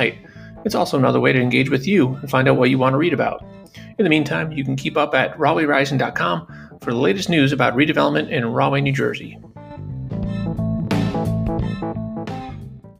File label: podcast trailer; Rahway Rising